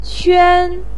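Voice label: "quan", Chinese pinyin, first tone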